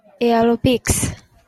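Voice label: "aerobics", Japanese female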